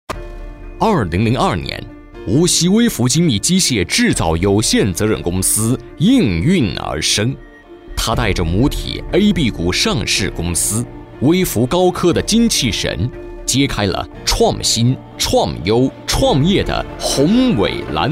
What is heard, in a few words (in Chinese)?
男 宣传片 大气 沉稳; 无锡